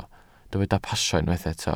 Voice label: "pasio", Welsh

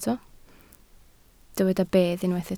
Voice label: "bedd", Welsh

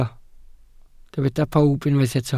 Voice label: "pawb", Welsh

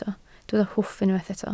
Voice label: "hwff", Welsh

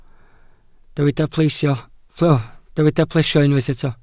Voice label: "plesio", welsh

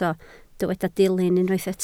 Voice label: "dilyn", Welsh

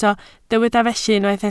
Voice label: "felly", Welsh

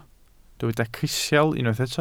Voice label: "crisial", welsh

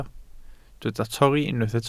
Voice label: "torri", Welsh

